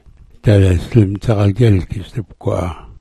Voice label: "dzagal", smalgyax